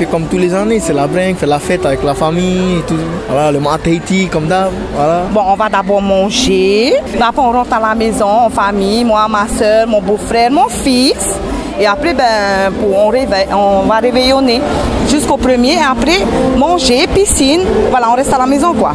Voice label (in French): VOX POP JOUR DE L'AN